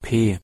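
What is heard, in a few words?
"p" German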